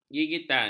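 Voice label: "gigitan", Malay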